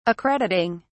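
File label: "accrediting", British English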